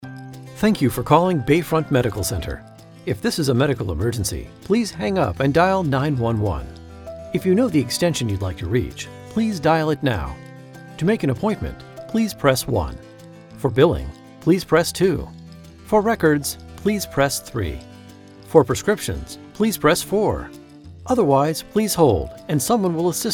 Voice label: IVR

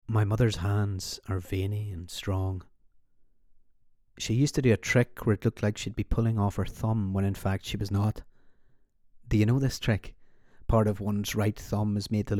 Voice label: Northern Irish Sample

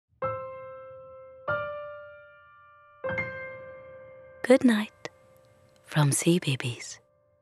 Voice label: Ident; CBeebies

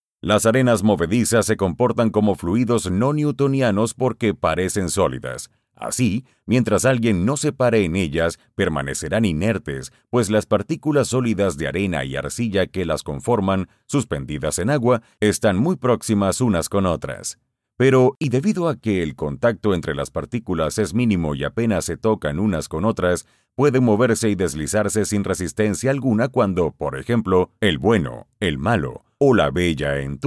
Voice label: Latin American Neutral Spanish E learning

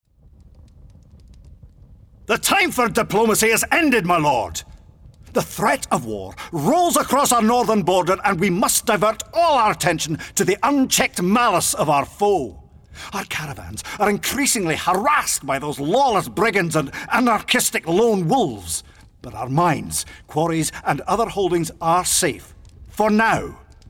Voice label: Gaming Clip War Scots

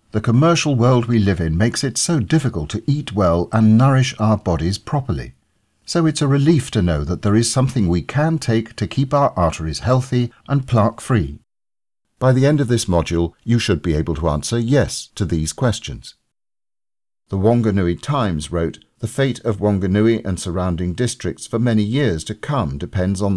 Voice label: eLearning

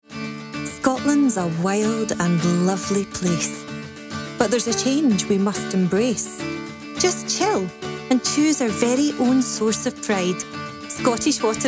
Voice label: Commercial

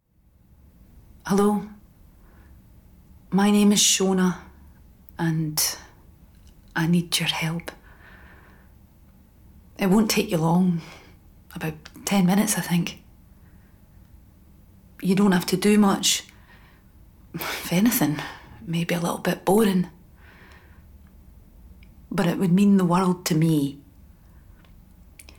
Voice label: Give Blood Commercial

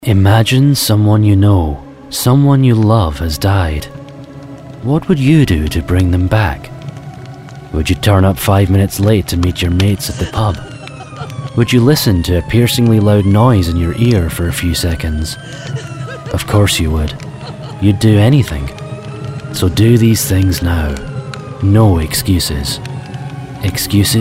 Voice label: Smoke Alarms Commercial

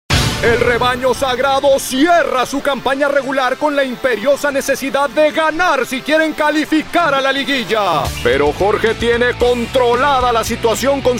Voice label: Soccer Style

